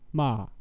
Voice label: Mandarin scold

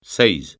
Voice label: "seizh", Breton

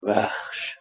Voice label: "vaxS", Persian, M

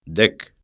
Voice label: "dek" Breton

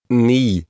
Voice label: "ni", Norwegian

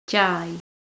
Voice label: "jeih", Manx

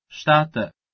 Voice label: "shtate", Albanian

